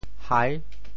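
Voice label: "hai6", Teochew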